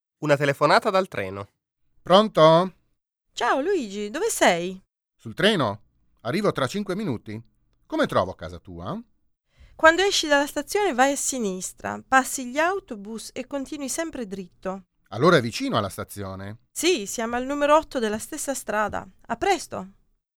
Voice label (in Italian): Una telefonata dal treno